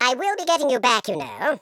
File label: "i'll get you", Patronising